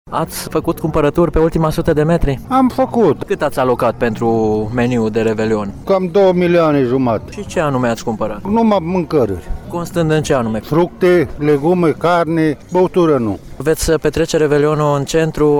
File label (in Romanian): vox public; Revelion